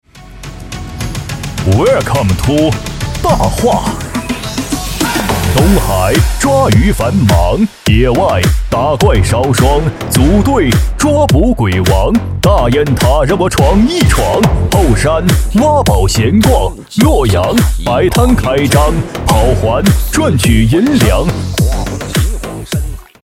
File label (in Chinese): 男 喊麦; WELCOME TO 大话西游